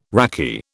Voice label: "raki", British